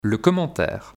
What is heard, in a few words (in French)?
"le commentaire", vocabulaire; vocabulaire PMTIC